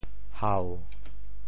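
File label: "hau7", Teochew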